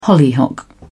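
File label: "hollyhock", British English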